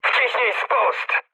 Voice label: attack position mood med, speech pol; War Thunder

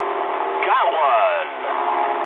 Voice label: eng player killed enemy mood med, speech eng; War Thunder

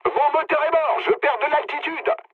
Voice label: damaged engine mood low, speech fr; War Thunder

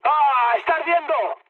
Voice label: on fire mood low; War Thunder

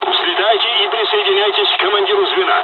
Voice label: takeoff, dialogs russian; War Thunder

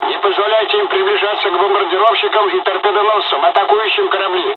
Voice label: dialogs russian; War Thunder

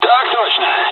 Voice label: dialogs russian; War Thunder

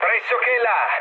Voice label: near waypoint mood low, speech it; War Thunder

